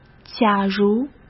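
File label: "假如" Mandarin Chinese; hsk; chinese-flashcards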